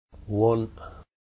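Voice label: one, Tyneside English traditional dialect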